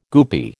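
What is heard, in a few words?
"goopy", American English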